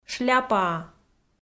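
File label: "шляпаа", Komi, female; kpv audio dict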